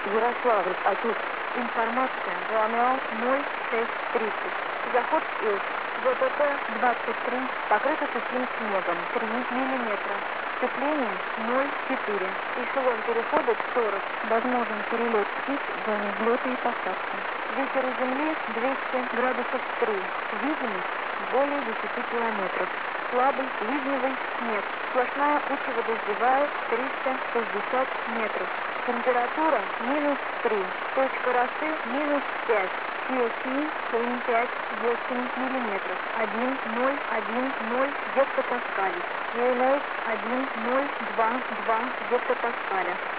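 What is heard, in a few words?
ATIS Russian; yar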